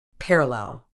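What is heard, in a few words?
"parallel", medical English, British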